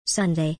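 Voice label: "Sunday", British English; days-of-the-week-in-english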